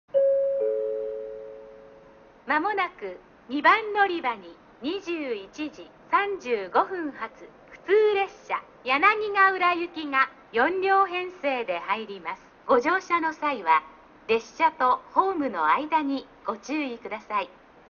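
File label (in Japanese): 2番のりば予告放送, 中津駅; 普通 柳ヶ浦行き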